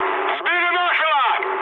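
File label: Russian aircraft destroyed mood high, speech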